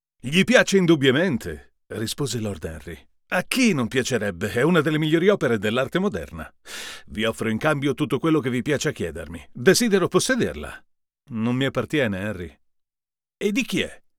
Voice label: U87AI VS RodeNTK RK12 Mullard